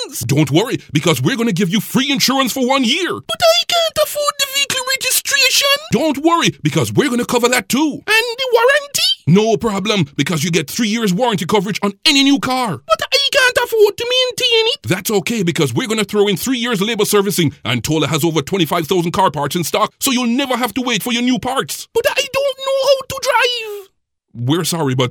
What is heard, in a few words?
Christmas Sale polished Jamaican; Mitsubishi